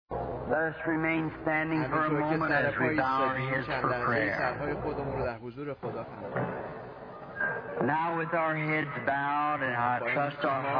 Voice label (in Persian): Farsi Voice Over; Gods Chosen Place